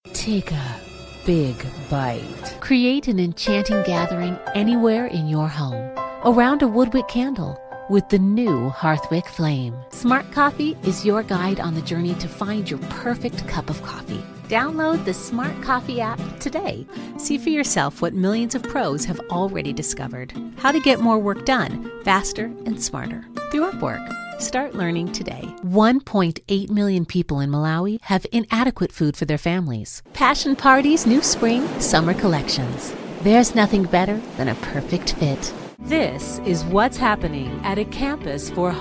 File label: commercial